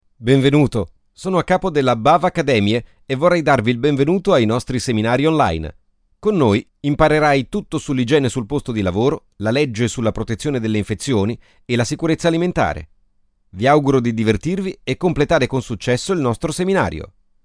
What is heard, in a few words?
ITALIAN